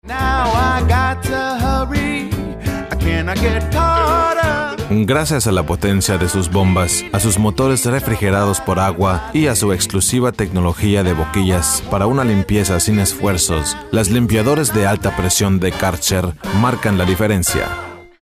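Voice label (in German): Werbung Neutral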